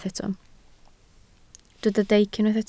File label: "deic", Welsh